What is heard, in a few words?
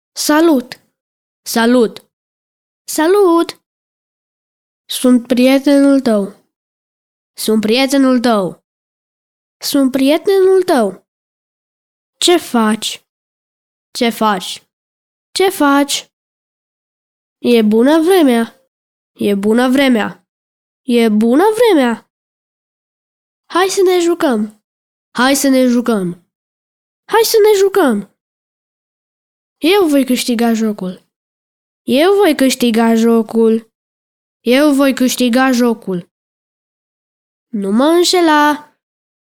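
vox Romanian child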